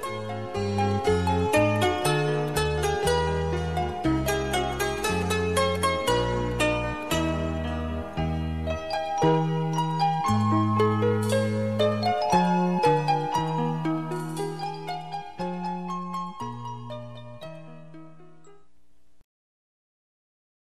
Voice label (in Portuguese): Feliz Natal e Ano Novo Querendo Presente, M